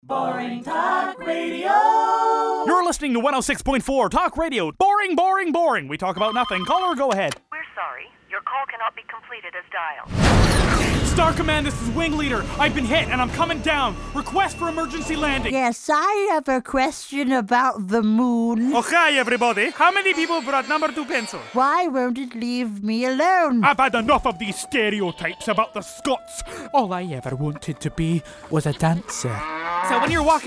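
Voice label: animation voiceovers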